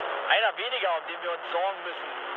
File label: player killed enemy, mood med, speech ge; War Thunder